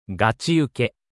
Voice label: anime, Japanese Anime and Internet Slang Expressions of ‘Haha! That’s Funny!